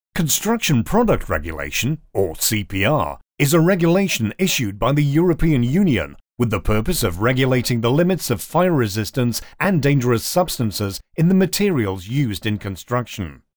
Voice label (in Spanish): narración inglés UK